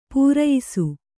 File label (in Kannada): "ಪ್ರಾಯಶಃ", kannada